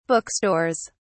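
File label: "bookstores", British English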